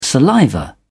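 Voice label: "saliva", British English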